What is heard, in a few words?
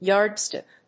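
"yardstick", English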